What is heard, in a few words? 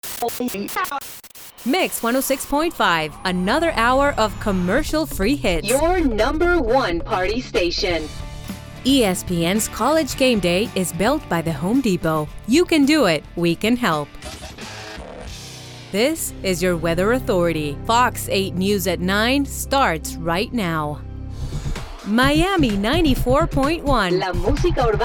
english promos